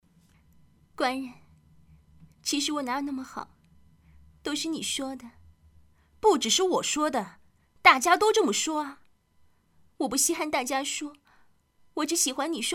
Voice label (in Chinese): 动画 模仿, 女; 白娘子许仙